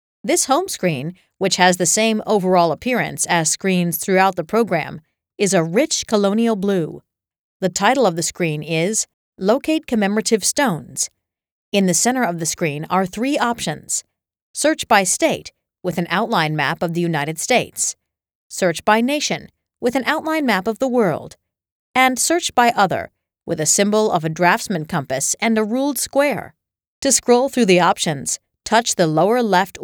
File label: female